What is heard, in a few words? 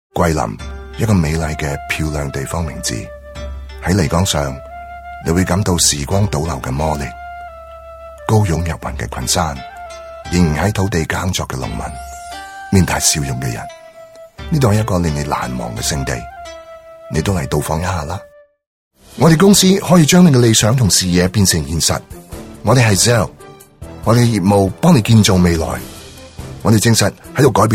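Cantonese, narration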